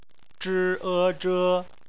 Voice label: "zhe", Chinese